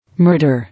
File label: "Murder", English